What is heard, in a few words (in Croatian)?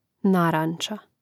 "naranča", Hrvatski; neizvorni; mreznik